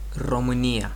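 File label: "România", Romanian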